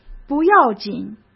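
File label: "不要紧", Mandarin Chinese; hsk; chinese-flashcards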